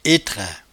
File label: "etrain", patois jura